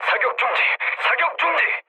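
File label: Korean player friendly fire mood low, speech; War Thunder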